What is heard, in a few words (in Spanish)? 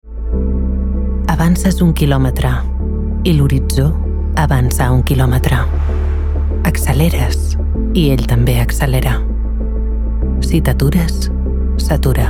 SPOT SOFISTICADA ASPIRACIONAL